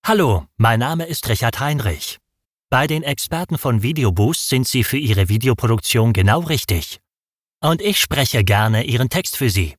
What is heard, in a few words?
Voiceover